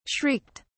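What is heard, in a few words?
"shrieked", British English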